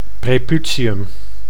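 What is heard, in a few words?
"preputium", Dutch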